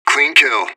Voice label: radio bot nice shot; fbihrt

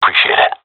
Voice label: thankful; Counter Strike; CS:GO; seal diver

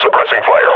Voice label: suppressing, combine grunt; Half Life Model Pack

